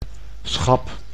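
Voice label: "schap", Dutch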